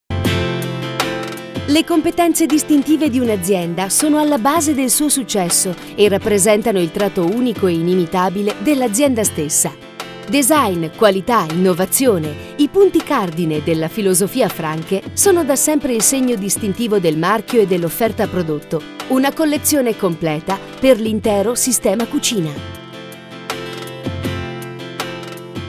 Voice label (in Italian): istituzionale